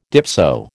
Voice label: "dipso", American